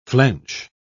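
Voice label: "flench", British English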